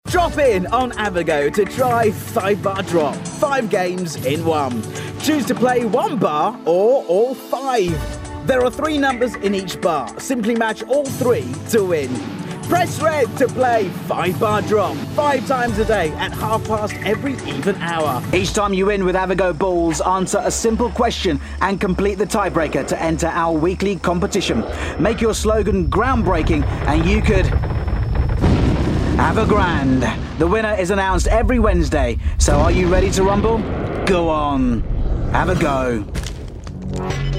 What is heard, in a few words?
voice over demo